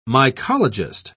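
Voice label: "mycologist", British English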